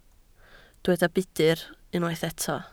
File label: "budr", Welsh